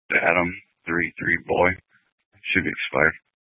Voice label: Police Dispatch

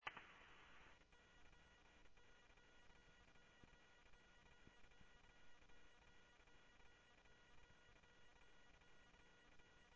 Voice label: scanner audio; Thompson Peak; DPS CI-Ctrl

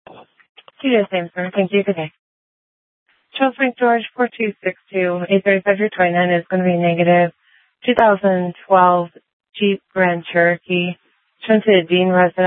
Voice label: Sheriff, scanner

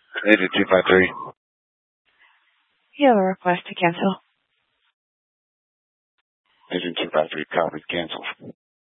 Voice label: Fire Departments, scanner; C4 Gilbert, QC, Superstition; TOPAZ; Mesa Simulcast

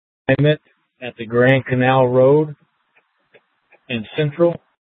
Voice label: Light Rail Ops, scanner; Valley Metro Public Transit System; Regional Wireless Cooperative (RWC) - Simulcast F- Tempe; Light Rail Ops 1

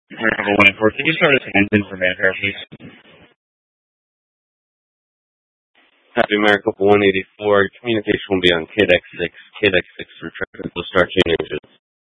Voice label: Phx PD Fire Dispatch

